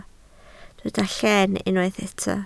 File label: "lleen", Welsh